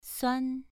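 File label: "suan" Mandarin Chinese, second tone, pronunciation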